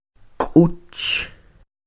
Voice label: "k'üch", Quiché; zopilote; vulture

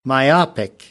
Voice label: "myopic", American English; ɑː - ɒ

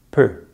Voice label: "peu", French; Consonantes en francés; easypronunciation

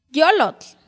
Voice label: "yollotl", Náhuatl; corazón

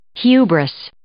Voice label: "hubris", English

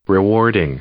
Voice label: "rewarding", English; adjective

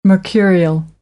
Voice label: "mercurial", American; AmericanBritish

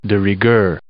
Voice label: "de rigueur", English

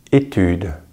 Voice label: "étude", French

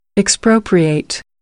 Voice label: "expropriate", American; oʊ - əʊ

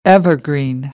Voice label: "evergreen", American; AmericanBritish

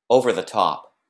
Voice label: "over the top" adjective; English

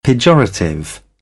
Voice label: "pejorative", British; AmericanBritish

